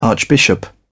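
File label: "archbishop", British English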